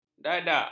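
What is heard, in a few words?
"dadak", Malay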